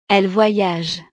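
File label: "elles voyagent", French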